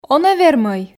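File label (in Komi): он-ӧ вермӧй, Komi, female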